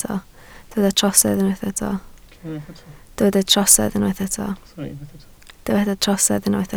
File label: "trosedd", Welsh